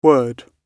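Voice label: "word", British English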